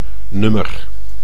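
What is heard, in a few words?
"nummer", Dutch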